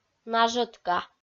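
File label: "нажӧтка", Komi, female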